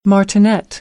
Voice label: "martinet", American; AmericanBritish

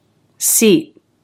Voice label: "seat", English, Stop T

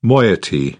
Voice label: "moiety", British; English

